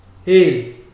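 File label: "hail", Nigerian Pidgin, male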